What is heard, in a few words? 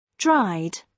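"dried", British English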